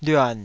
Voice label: "lyuan3", Taiwanese syllable

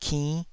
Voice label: "kinn5", taiwanese syllables

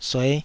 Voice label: "sueh5", taiwanese Syllables

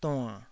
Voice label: "duann3", Taiwanese

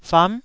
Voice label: "fam9", taiwanese syllables

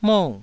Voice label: "mou4", Taiwanese

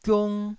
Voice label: "giung1", Taiwanese syllable

